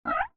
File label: "To" muffled